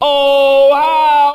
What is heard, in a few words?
"oh ow", Voice; ooh ahh